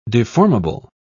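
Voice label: "deformable", British English, dictionary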